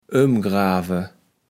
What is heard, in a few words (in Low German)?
"ömgrave", Platt